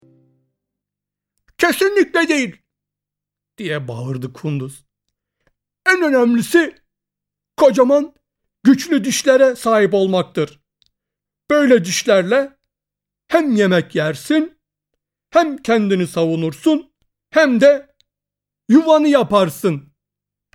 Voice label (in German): tuerk; Allerwichtigste; hueber